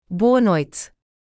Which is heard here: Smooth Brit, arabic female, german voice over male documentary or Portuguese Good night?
Portuguese Good night